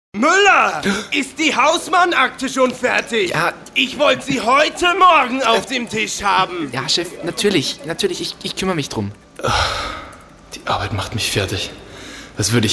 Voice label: Werbung; Erlebnis Akademie

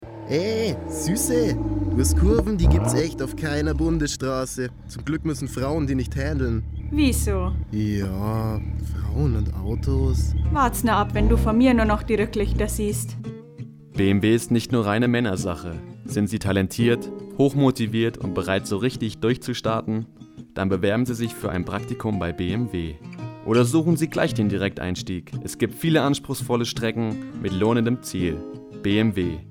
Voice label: Werbung

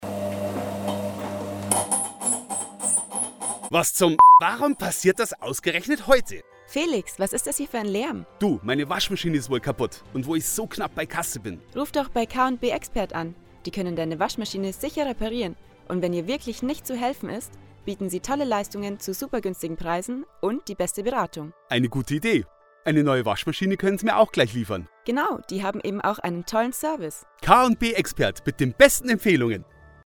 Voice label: Werbung

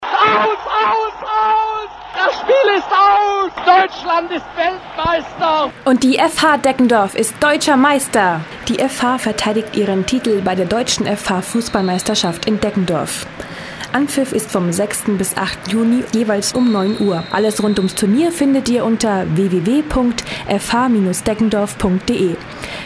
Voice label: Werbung; DFHM

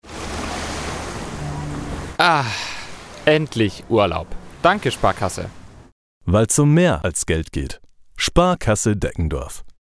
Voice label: Werbung Reminder; Sparkasse

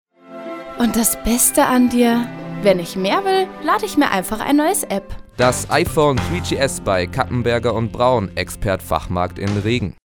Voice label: Werbung Reminder

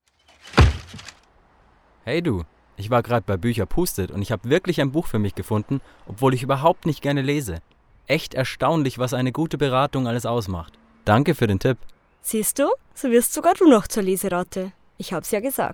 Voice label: Werbung; Buecher Pustet

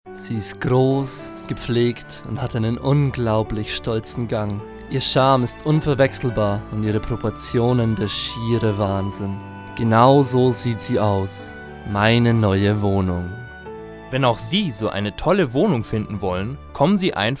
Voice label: Werbung Sparkasse

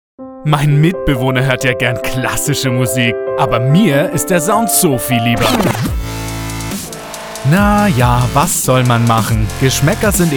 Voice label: Werbung; Axial Acoustic

